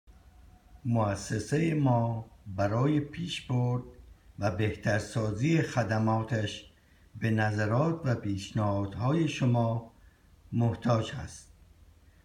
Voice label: Feedback Farsi

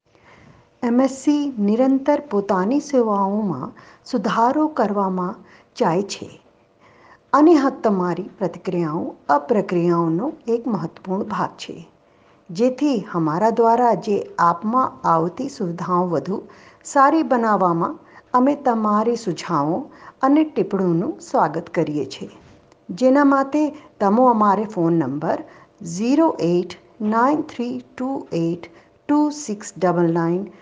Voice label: Gujurati; Feedback

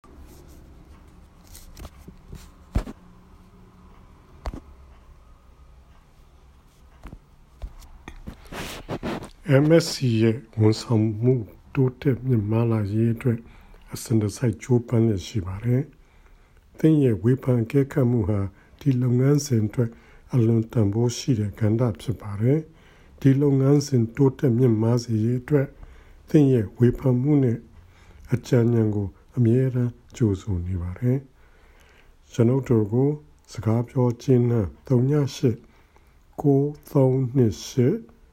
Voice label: Feedback Burmese

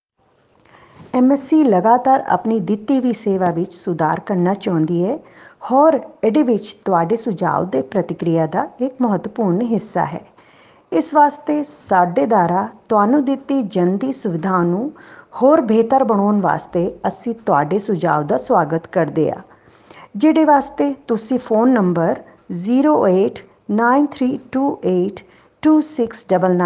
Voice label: Feedback Punjabi

